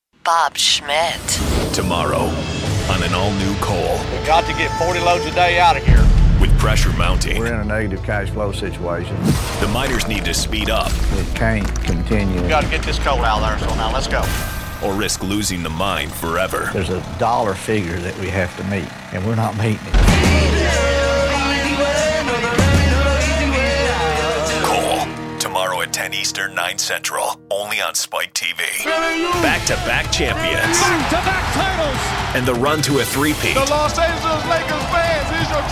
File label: promo male